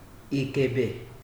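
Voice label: "ikebe", Nigerian Pidgin, male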